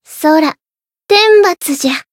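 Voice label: Voice Japanese; leviachan; finish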